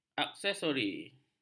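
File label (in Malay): "aksesori", Malay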